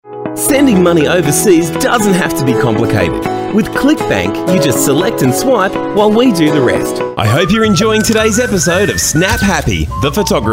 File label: Male Talent